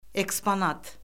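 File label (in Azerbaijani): "eksponat", Azerbaijani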